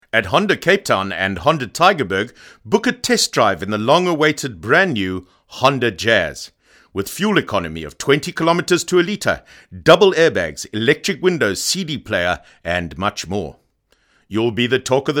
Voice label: hard sell